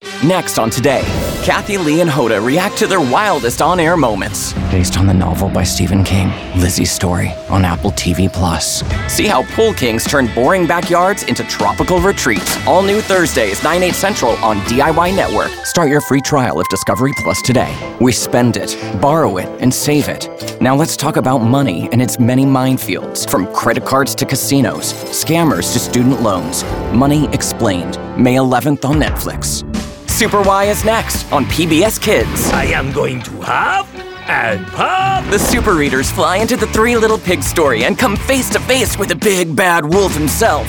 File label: TV Promo